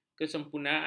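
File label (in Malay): "kesempurnaan", Malay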